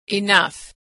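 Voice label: "enough", American English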